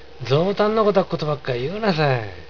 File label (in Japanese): ぞーたんのごたっことばっかいゆーなさー。, 有田弁; 冗談みたいなことばっかり言うなよ。; ぞーたんのごと; 冗談のような